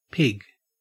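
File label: "pig", Australian English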